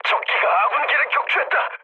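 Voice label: Korean aircraft destroyed mood low; speech; War Thunder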